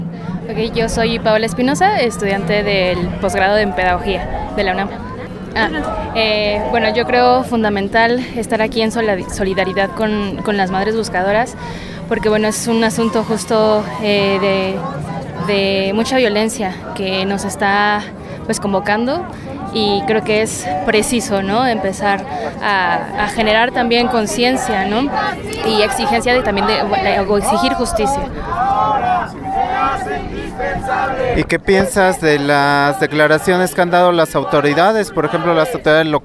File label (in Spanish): Testimonio; Luto Nacional Teuchitlan